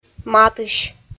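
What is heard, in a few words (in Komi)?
"матысь", Komi-Zyrian, female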